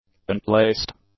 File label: "unplaced", english; adjectives